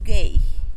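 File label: "geijy", loud, Teotitlán del Valle Zapotec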